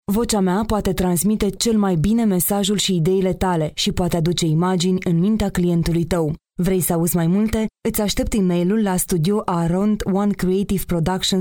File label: female romanian voiceover; locutora rumana.